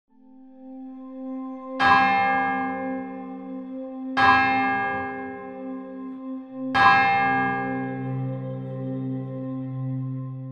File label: spoken regina prayer with bells and quiet music; regina coeli